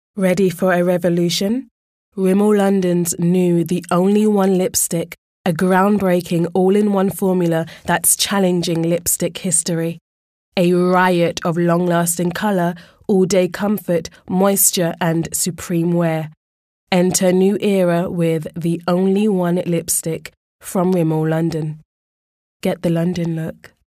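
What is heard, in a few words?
British English, Commercial; GoLocalise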